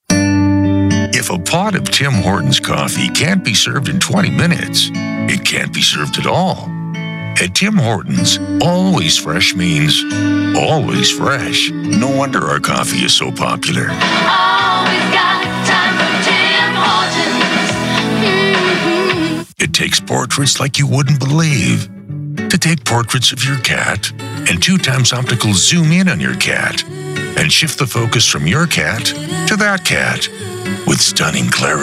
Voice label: Commercial, Male Voice Actors - Commercial Voice Over